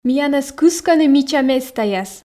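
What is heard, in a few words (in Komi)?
миянӧс кыскӧны мича местаяс, Komi, female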